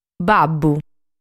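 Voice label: "Babbu", Sardinian word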